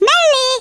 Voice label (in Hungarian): "missed", Hungarian; Worms Armageddon